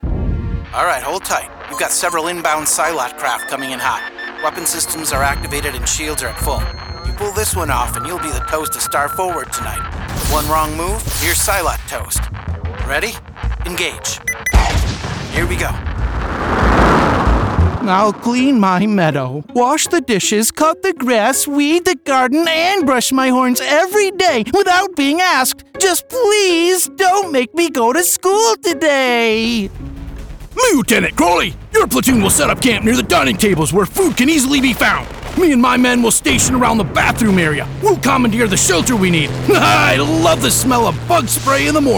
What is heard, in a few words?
character voices